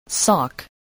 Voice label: "sock", English voice